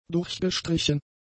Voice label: "durchgestrichen", German; adjectives

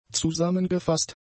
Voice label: "zusammengefasst", German; adjectives

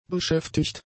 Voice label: "beschäftigt", German; adjectives

